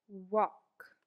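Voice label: "Walk", English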